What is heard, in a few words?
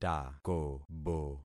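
"takobo", concatenated syllables; Affixing experiment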